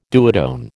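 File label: "duotone", American English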